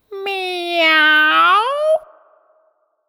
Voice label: Meow Spoken, A cat ‘meow’ sound spoken in male effeminate voice.; Recorded Voice